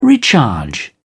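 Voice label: "recharge", British English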